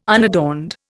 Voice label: "unadorned", Indian